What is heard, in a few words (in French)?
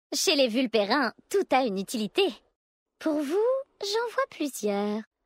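French Female Flirt; Vulpérins; Utilite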